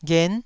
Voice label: "ghen9", Taiwanese syllable

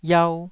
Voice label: "iau2", Taiwanese syllable

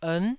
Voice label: "ern", Taiwanese

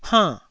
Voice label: "hann4", taiwanese

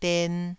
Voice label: "den2", Taiwanese syllable

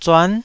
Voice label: "zuan9", taiwanese

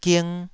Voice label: "ging2", Taiwanese syllable

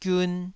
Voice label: "giun2", taiwanese

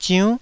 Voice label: "ziunn9", taiwanese

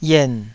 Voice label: "yen3", taiwanese Syllables

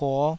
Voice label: "puo5", Taiwanese

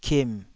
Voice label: "kim3", taiwanese syllables

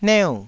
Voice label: "neu4", taiwanese syllables

